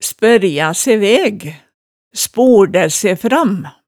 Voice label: "spørja se væg", hemsedalsmaalet

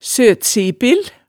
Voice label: "søtsipil", hemsedalsmaalet tale

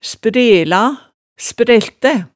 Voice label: "sprela", hemsedalsmaalet

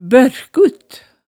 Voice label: "børkut", hemsedalsmaalet tale

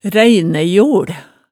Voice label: "ræinæjol", Hemsedal

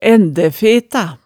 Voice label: "ændæfeta", hemsedalsmaalet tale

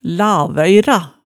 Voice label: "lavøyra", hemsedalsmaalet tale

